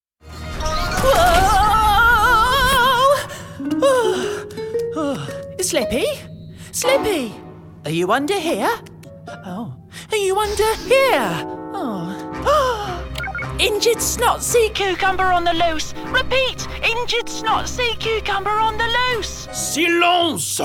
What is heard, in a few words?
male english multiple accents character animation; Devine Voices